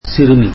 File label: tamil girl